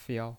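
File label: "fiao" Mandarin Chinese, neutral tone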